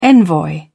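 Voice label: "envoy", English, British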